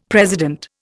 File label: "president", Indian; Dictionary